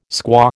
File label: "squaw", American